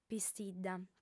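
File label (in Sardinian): "pistidda", female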